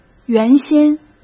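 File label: "原先", Mandarin; chinese-flashcards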